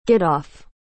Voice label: "get off", English